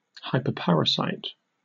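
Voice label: "hyperparasite", British English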